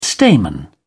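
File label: "stamen", British English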